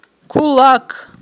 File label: "кулак", Aghul